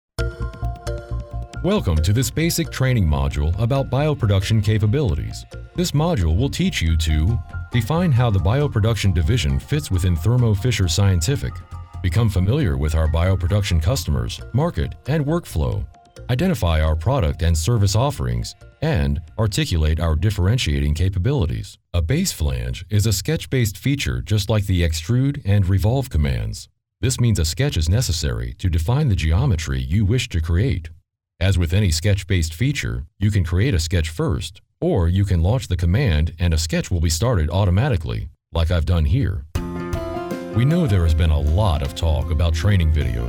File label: e-Learning